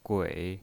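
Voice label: "gui", Mandarin Chinese, third tone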